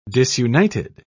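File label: "disunited", British English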